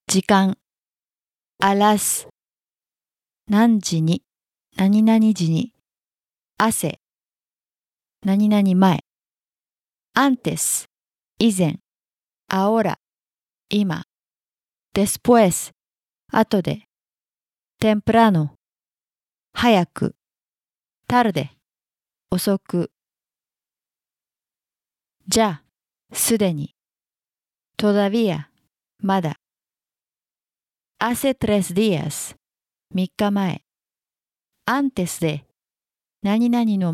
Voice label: Spanish basic word; basic spanish 2019